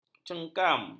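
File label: "cengkam", Malay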